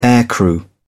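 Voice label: "aircrew", British English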